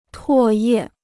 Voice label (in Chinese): "唾液", Chinese